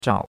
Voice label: "zhao3", chinois; Cours-de-Chinois